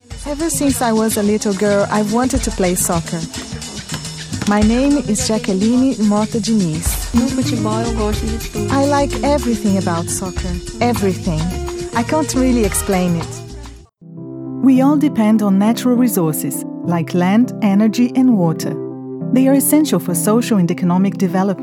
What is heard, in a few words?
Por Bra Eng